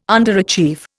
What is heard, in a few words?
"underachieve", Indian